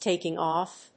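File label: "taking-off", English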